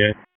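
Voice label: Police Northeast District Dispatch, p25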